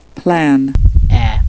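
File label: plane us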